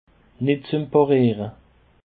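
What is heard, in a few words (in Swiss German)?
"netzuempariere", Alsatian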